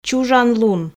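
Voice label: "чужан лун", Komi, female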